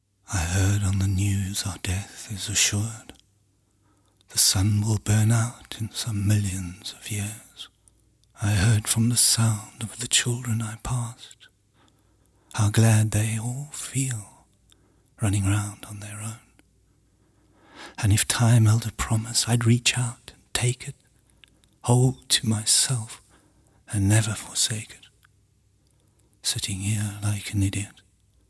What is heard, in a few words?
English